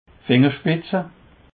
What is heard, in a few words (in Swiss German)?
"fingerspitze", Alsace